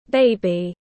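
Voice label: "Baby", English; Em bé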